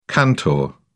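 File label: "cantor", British English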